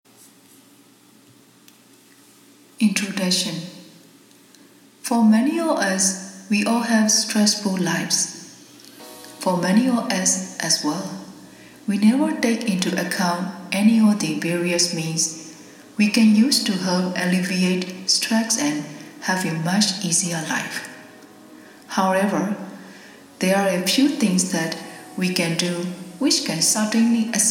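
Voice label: Burmese Accented English; GoLocalise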